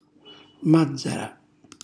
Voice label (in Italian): "mazzara", Calabrese; cucina calabrese; Glossario